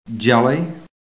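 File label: "jelly", American English; PHONETIC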